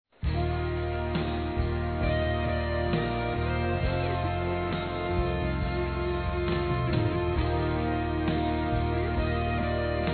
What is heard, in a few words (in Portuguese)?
Aniversário Evangélico Mãe Masculino